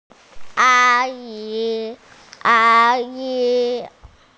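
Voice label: "a1 yi2", Mandarin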